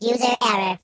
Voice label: "Oops", Robot